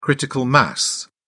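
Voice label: "critical mass", British English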